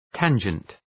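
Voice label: "tangent", English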